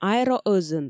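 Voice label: "аэроӧзын" Komi, female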